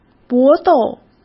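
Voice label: "搏斗", Mandarin Chinese; hsk; chinese-flashcards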